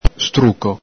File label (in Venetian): "struco", Veneto; ENG: conclusion, regarding; ITA: merito, sostanza, conclusione